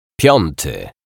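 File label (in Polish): "piąty", Polish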